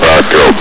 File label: "backup", hgrunt